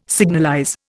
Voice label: "signalize", Indian